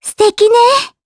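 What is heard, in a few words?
Vox Happy, Japanese; Kirze; kingsraid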